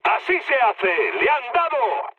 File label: aircraft destroyed mood med; War Thunder